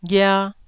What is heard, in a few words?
"ghia1", Taiwanese syllable